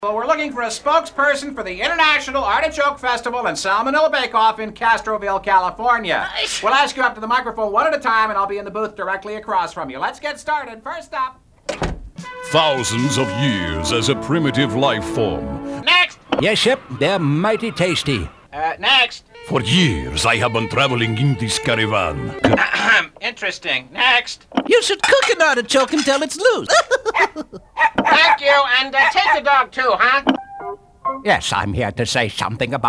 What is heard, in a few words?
men animation; Characters, comical, announcer.